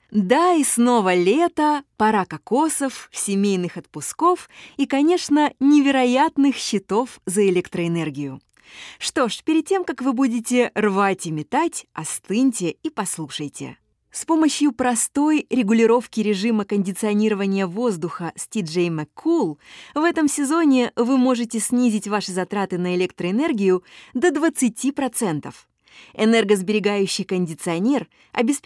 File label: commercial Russian